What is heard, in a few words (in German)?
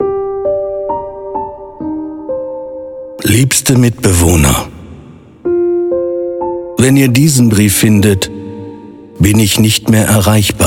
WERBUNG Kino